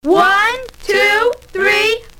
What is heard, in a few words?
1 2 3 kids; numbers and letters